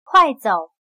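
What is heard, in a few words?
"快走", Chinese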